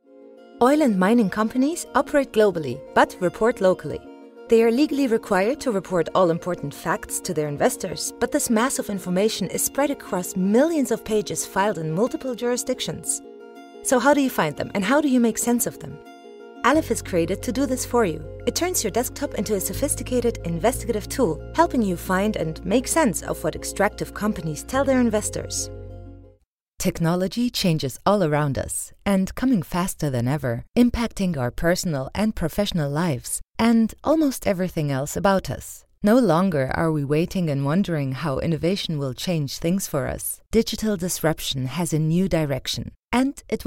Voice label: EN Explainer

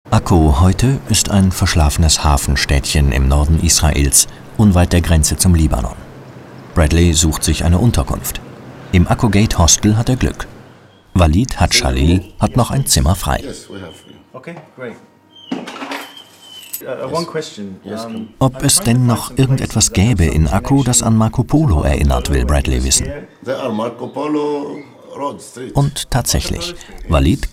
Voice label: German Documentary

